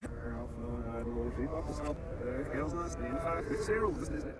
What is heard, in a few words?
Talking Reverse; Satans calliope